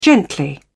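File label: "gently", British English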